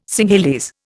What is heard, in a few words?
"Singhalese", Indian